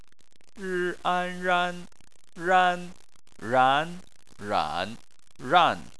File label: "ran", chinese